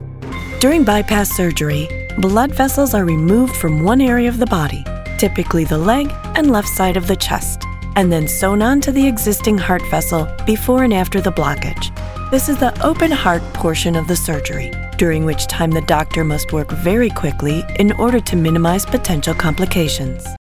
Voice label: Medical E-Learning; Coachingwellbeing